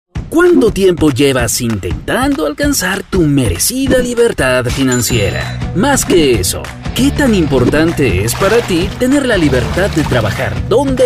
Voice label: Reel Video Explaine